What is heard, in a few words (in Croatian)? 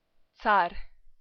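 "car", Croatian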